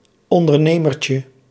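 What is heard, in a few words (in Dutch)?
"ondernemertje", Dutch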